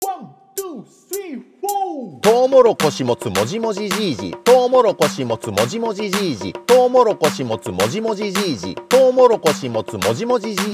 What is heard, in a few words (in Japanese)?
早口言葉リズム（中級編）